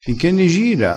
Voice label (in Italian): "gira", crespinese